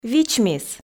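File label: "вичмис", Komi, female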